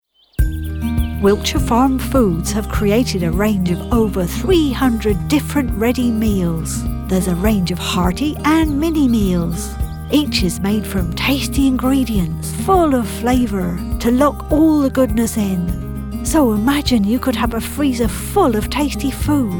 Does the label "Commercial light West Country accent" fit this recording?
Yes